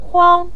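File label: "huang", Mandarin Chinese, neutral tone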